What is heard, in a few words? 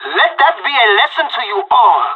radio bot end clean, pirate; Counter Strike Model Pack; CS:GO